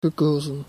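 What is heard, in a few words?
"gekozen", Dutch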